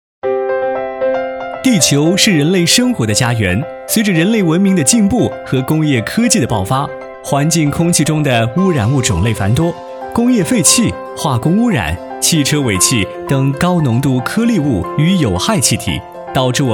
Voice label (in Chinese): 男 专题 年轻动感; 韩乐康随身氧吧